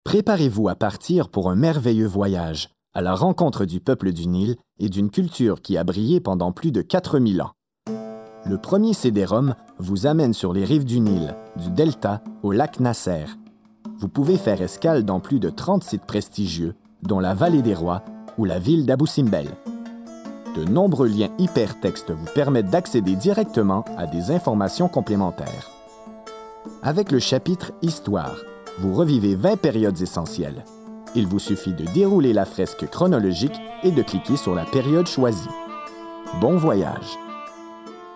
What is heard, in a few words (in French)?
narration